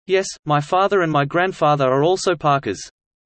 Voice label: fast; studying abroad